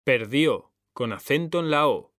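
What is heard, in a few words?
"perdió", Spanish; mekanta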